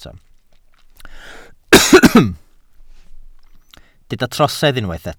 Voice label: "trosedd", Welsh

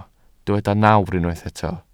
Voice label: "nawr", Welsh

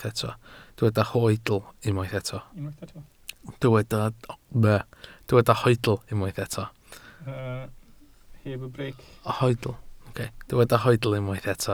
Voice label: "hoedl", Welsh

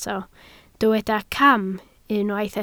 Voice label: "cam", Welsh

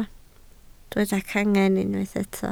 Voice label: "cangen", Welsh

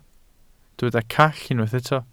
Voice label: "call", Welsh

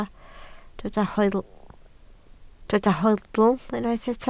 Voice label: "hoedl", Welsh

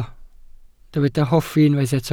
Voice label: "hoffi", Welsh

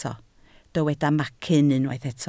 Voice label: "macyn", Welsh

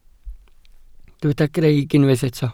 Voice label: "greic", Welsh

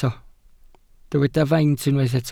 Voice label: "faint", Welsh

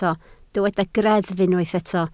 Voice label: "greddf" Welsh

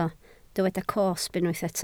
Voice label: "cosb", Welsh

